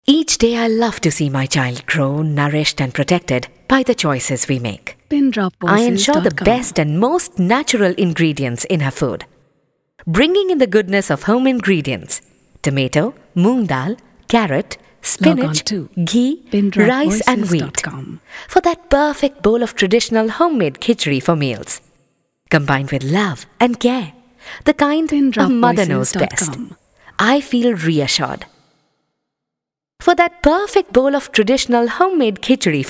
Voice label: English

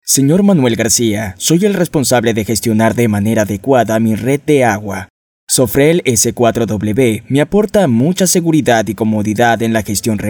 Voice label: Spanish

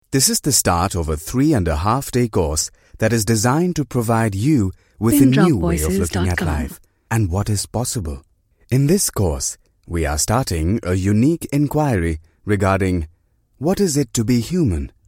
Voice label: English

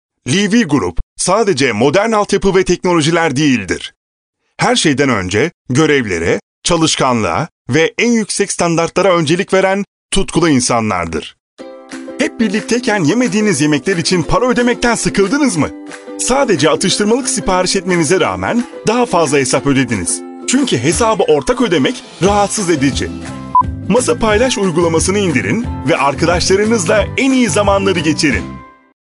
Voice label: Turkish

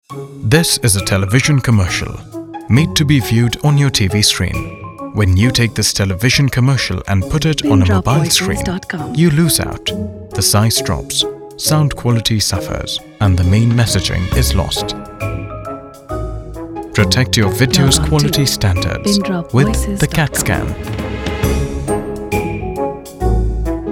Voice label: English

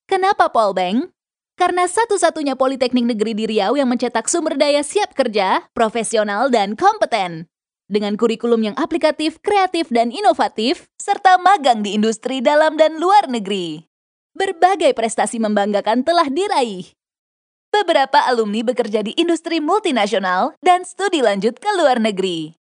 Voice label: Indonesian Bahasa

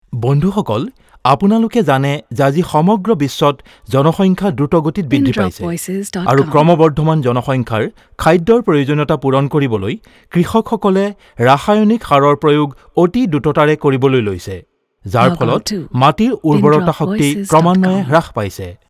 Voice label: Assamese

